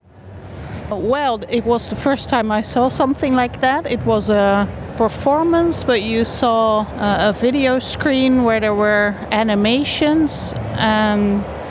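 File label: female English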